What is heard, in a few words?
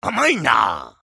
vo chatter positive; eisen; frag